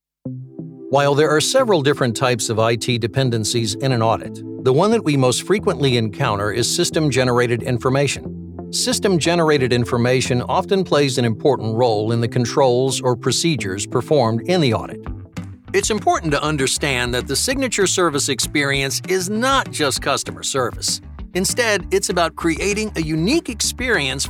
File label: ELearning